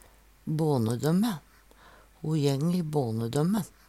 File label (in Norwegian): "bånedømme", Numedalsmål; bån = barn